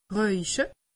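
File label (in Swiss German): "rauche", Alsatian; medecine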